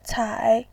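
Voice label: "cai", Mandarin Chinese, tone 3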